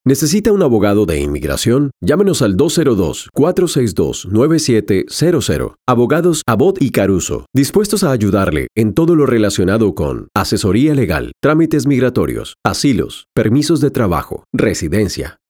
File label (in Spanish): ABOGADO DE INMIGRACION, SP